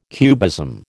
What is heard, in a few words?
"cubism", American